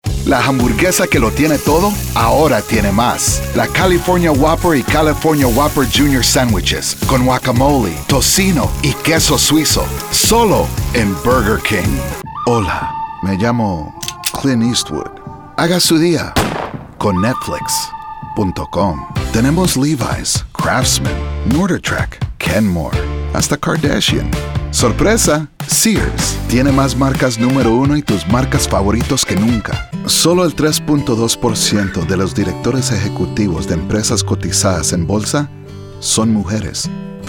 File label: Spanish